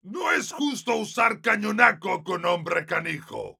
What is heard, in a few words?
melee dare, es; Heavy